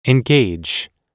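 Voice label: "engage", English; oxford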